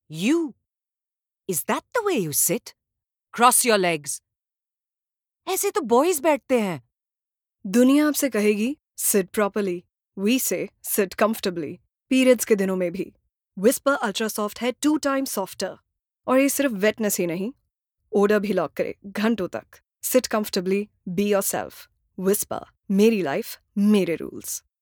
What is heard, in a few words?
commercial